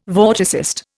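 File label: "vorticist", Indian English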